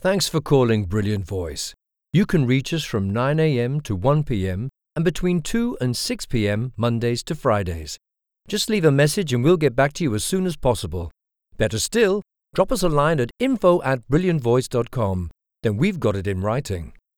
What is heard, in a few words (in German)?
Telefonansagen